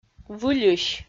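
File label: "выльысь", Komi, female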